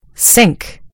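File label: "sink", American English; gcompris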